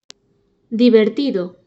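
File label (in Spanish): "divertido", Spanish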